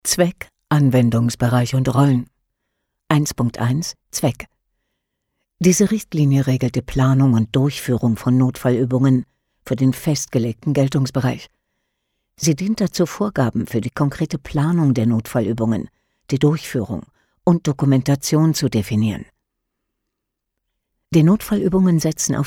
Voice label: E-Learning